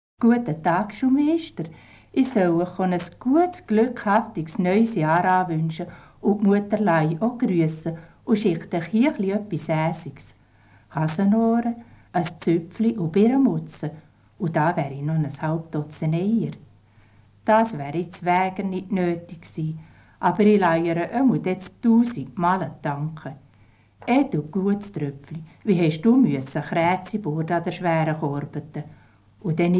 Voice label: Emmentaler Dialekt

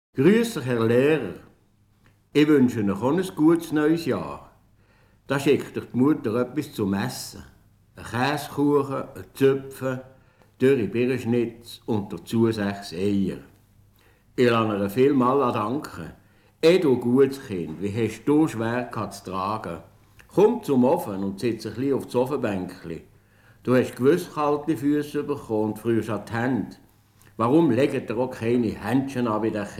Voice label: Stadtberner Dialekt

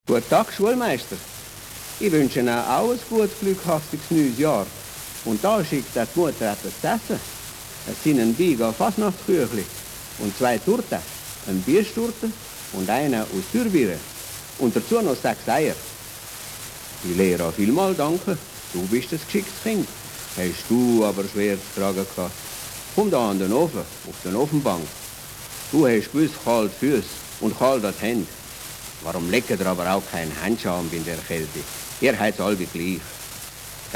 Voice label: Bündner Dialekt

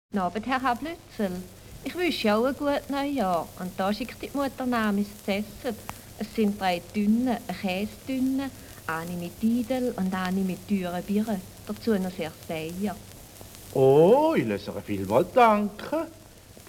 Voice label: Schaffhauser Dialekt

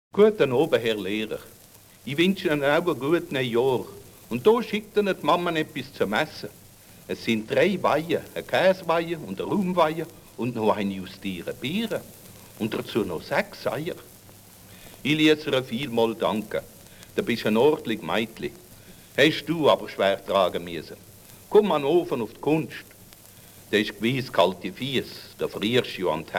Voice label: Basler Dialekt

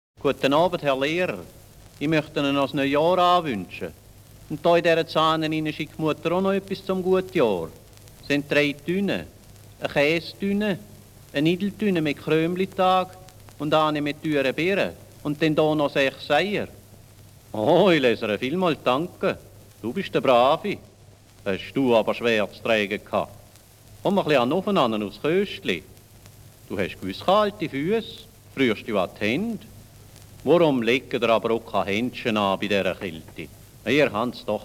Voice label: Thurgauer Dialekt

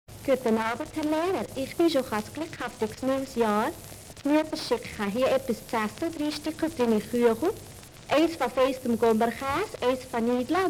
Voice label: Walliser Dialekt

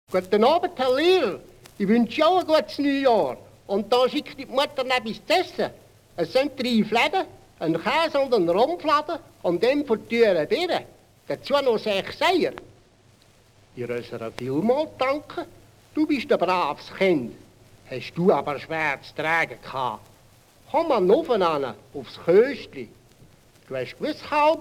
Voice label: Appenzeller Dialekt

